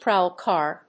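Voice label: "prowl car", English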